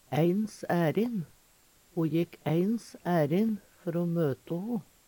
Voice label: eins ærin, Numedalsmål; Uttrykk; Ho jekk eins ærin før møte ho.

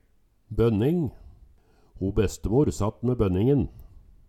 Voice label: "bønning", Numedalsmål

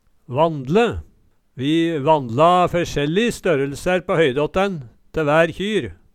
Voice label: vandle, Numedalsmål

